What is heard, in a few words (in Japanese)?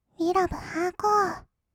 うぃらぶはーこー, kawaii voice; 音ゲー